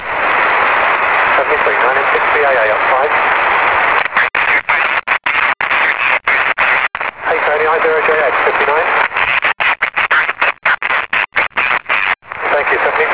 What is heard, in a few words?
ssb, hrd on six